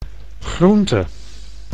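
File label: "groente", Dutch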